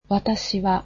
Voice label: "I", japanese